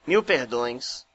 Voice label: sorry, portuguese br

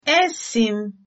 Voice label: im from, igbo

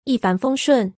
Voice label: bon voyage mandarin

